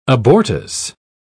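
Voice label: "abortus", English, British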